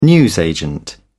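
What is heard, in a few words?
"newsagent", British English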